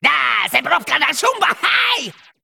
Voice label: Male Sporelok Play